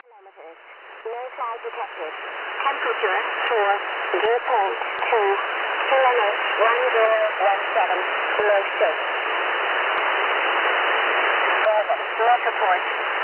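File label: Norwegian AM; 5895,0; Sala Erdal; 15221; Radio Northern Star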